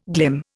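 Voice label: "glimmer", Indian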